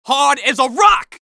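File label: scout taunt head pain, male voice; taunts; tf2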